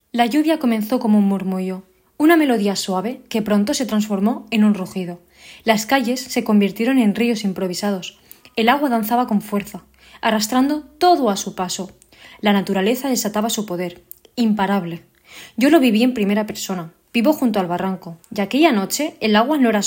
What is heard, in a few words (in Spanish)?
Narrativa; cartografias textiles; ODS